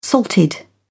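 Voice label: "salted", British English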